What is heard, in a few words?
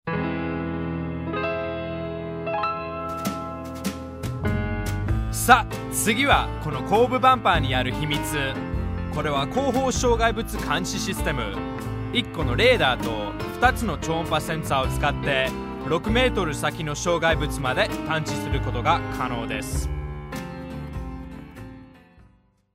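japanese, USA, male; narrator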